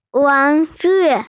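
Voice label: "wan2ju4", Chinese, female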